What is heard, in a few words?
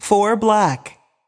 voice Over; americanroulette3